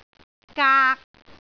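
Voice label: "gaak3", Cantonese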